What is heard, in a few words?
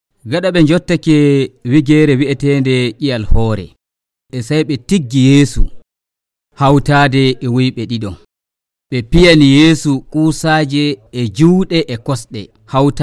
Fulfulde Nigerian; The Crucifixion of Jesus